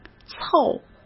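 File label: "凑" Mandarin Chinese; hsk; chinese-flashcards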